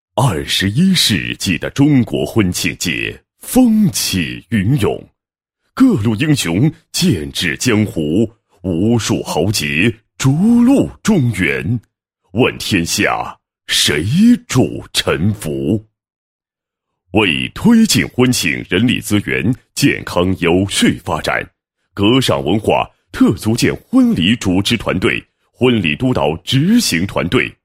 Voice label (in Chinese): 男 宣传广告; 格尚文化特组建婚礼主持团队宣传